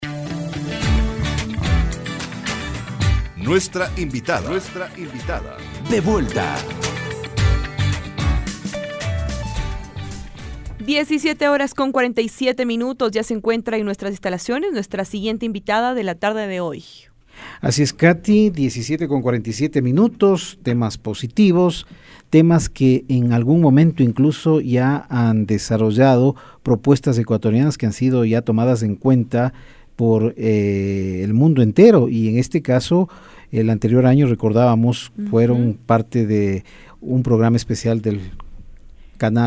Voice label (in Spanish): RADIO DISTRITO